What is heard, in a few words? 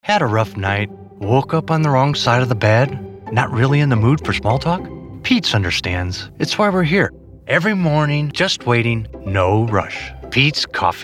Coffee Spot Commercial; Peets